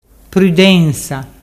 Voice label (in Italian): prudensa, dialettu